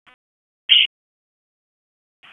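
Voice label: Wake Forest Police Dispatch, p25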